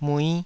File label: "mui5", taiwanese